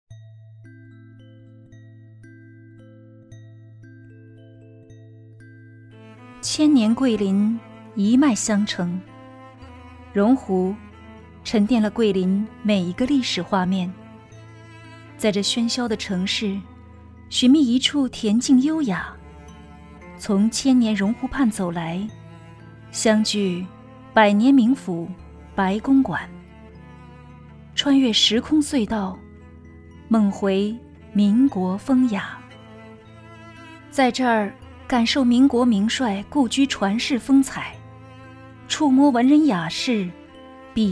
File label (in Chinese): 宣传片; 榕湖白公馆